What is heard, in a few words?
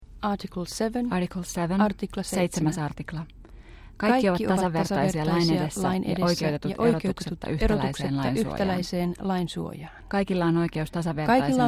Finnish